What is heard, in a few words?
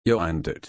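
"einen", German, slow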